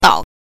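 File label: "dao", Mandarin Chinese pinyin, neutral tone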